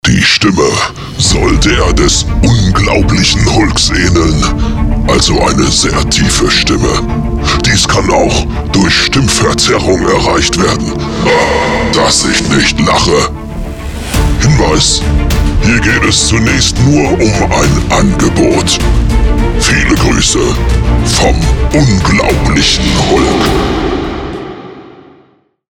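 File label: anim deep pitched Hulk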